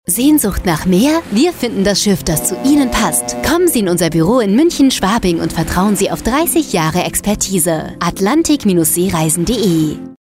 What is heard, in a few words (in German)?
Funkspot; ATLANTIK Seereisen GmbH